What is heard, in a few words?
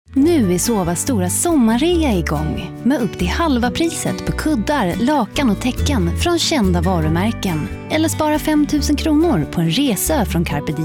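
Swedish commercial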